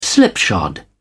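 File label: "slipshod", British English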